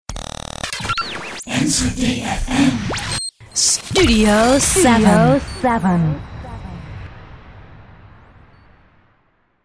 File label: voice over jingle; studio7